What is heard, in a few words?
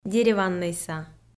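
"дереваннӧйса", Komi, female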